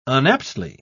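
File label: "unaptly", British English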